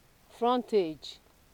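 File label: "frontage", Nigerian Pidgin, female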